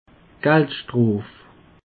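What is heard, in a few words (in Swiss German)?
"geldschtrof", Alsatian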